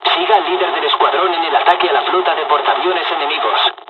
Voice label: fly, dialogs spanish; midway us2; War Thunder

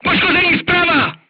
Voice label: voice message shot right, crew tanks czech

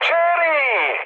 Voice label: enemy detected, mood high, speech tur

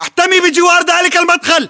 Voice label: order cover doorway, battle chatter, english

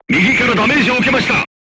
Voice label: voice message shot right, crew tanks japanese

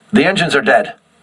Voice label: voice message lost propulsion, crew ships english

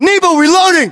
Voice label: US inform reloading, battlechatter, english

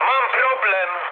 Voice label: aircraft damaged mood med, speech pol

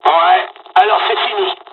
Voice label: dialog, dialogs french; War Thunder; midway

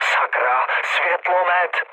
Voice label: searchlite, speech cze, mood low; War Thunder

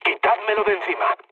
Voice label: aircraft damaged, mood low, speech; War Thunder

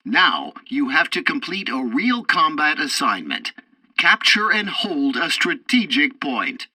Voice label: tutorial tank arcade capture zone start, english; War Thunder

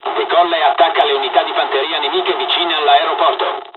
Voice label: inf attack, dialogs italian; War Thunder

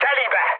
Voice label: bomb success, mood high, speech hun; War Thunder

